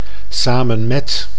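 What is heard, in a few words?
"samen met", Dutch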